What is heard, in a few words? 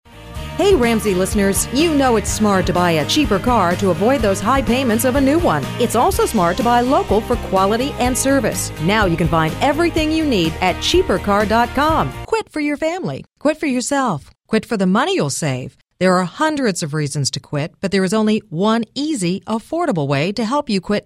Female VO Talent